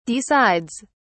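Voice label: "decides", English, British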